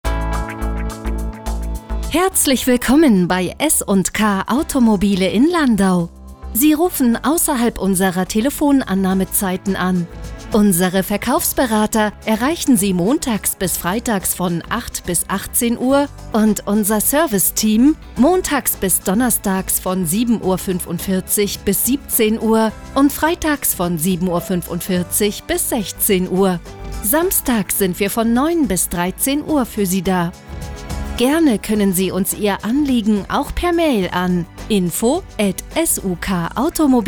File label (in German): telefonansage sprecherin